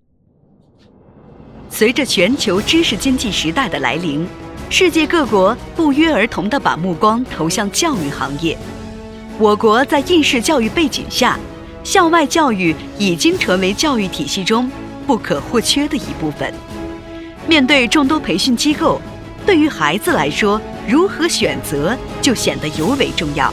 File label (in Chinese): 宣传片 成熟 大气